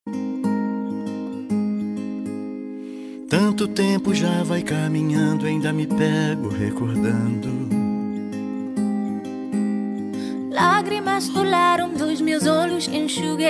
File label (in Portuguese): ROMANTICA PARA ESPOSO, telemensagem